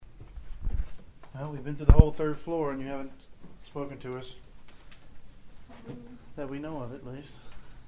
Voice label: "Hello" sound; EVP, or electronic voice phenomena, are said to be the disembodied voices from spirits